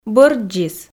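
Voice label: "бӧрддзис", Komi, female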